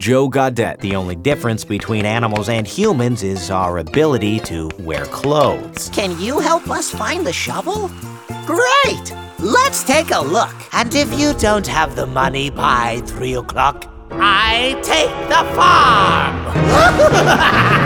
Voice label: Animation